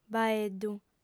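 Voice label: "baeddu", female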